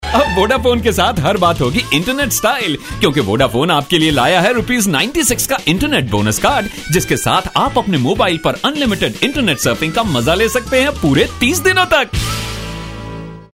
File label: Internet radio vo, male voice; Vodaphone